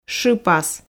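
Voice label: "шыпас", Komi, female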